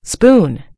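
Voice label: "spoon", British English; gcompris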